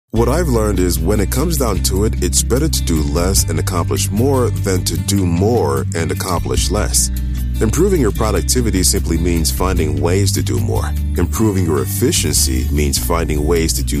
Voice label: REAL PERSON TESTIMONIAL